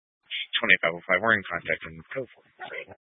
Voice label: Sheriff Dispatch; Mesa County